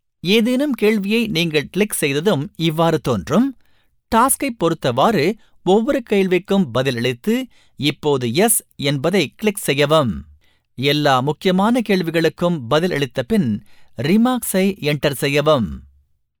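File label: Tamil male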